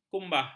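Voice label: "kumbah", Malay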